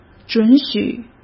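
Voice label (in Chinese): "准许", Mandarin Chinese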